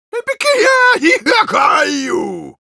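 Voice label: Engineer cheers, Russian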